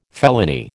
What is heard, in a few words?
"felony", American